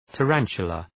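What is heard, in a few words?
"tarantula", English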